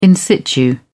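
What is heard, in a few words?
"in-situ", British English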